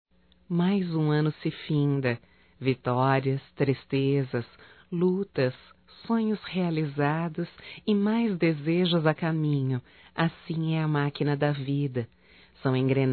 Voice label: ANO NOVO NOS DESEJAMOS, fem; Natal e ano novo; Telemensagens 2017

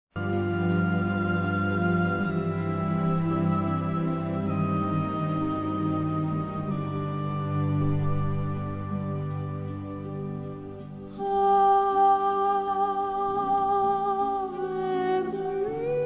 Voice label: Neutro Católico Feminino; Aniversários; Aniversários diversos

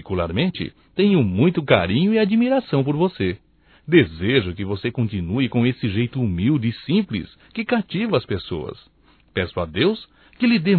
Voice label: Aniversário Atrasado Para Nora Masculino; Aniversarios; Telemensagens 2017

